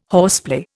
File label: "horseplay", Indian